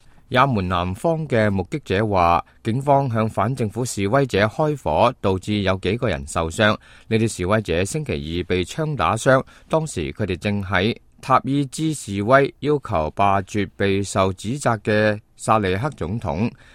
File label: cantonese, news